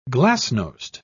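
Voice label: "glasnost", British English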